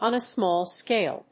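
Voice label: "on a small scale", English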